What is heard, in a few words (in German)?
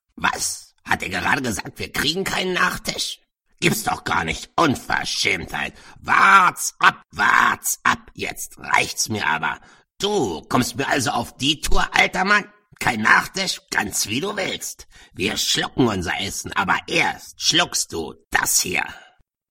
Trickstimme Wut